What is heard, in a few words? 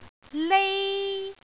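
"lei1", Cantonese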